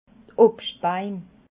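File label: "obstbaim", Alsatian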